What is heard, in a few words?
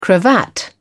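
"cravat", English, British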